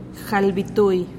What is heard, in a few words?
"jalbitui", twenty-one